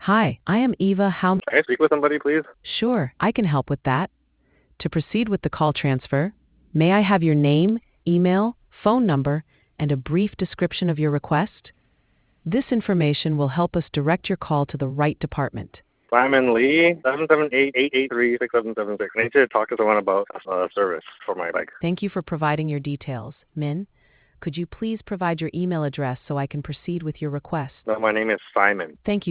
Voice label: conversation